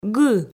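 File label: "гы", Komi, female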